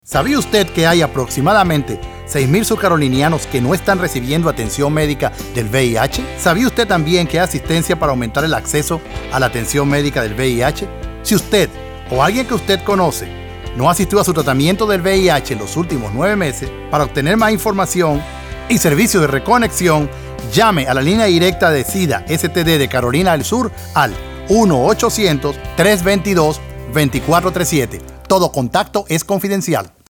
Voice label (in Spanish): Spanish Male; TRICE